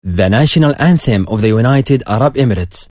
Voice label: Introduce UAE National Anthem, English